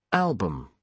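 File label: "album", British English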